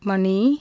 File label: "hour", Tamil consonants; JIPA